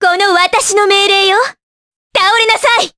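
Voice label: Vox Skill, voices, Japanese; kingsraid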